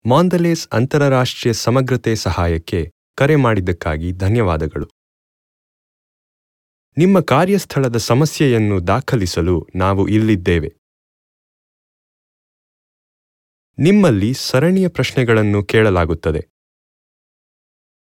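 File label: Kannada IVR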